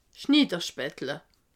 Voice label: "schniderspattle", alsace

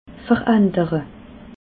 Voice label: "verandere", alsace

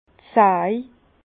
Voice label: "saj", Bas Rhin; vin; scie

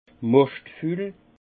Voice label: "moschtful", alsace; vin; baies trop mûres

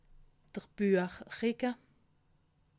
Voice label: "buechricke", alsace

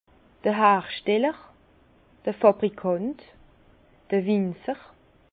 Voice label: "hersteller", Bas Rhin; vin; producteur

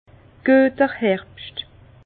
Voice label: "gueter", Bas Rhin; vin; bonnes vendanges

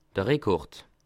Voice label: "rekord", Alsace

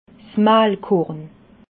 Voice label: "mahlkorn", alsace

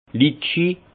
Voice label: "litchis", alsace; vin

